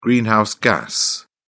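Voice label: "greenhouse gas", British English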